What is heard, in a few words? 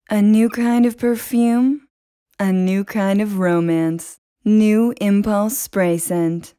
Bajada, Ingles (USA)